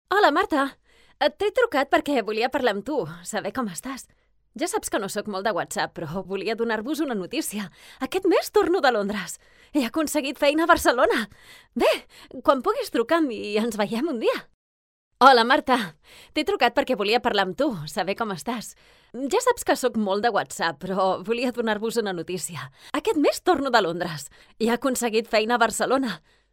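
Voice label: catalan real estate, female; professional Spanish and Catalan voice actor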